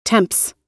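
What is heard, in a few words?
"tempts", US English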